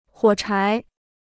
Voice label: "火柴", Chinese

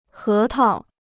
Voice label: "核桃", Chinese

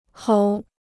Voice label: "吼", Chinese

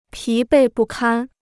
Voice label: "疲惫不堪", Chinese

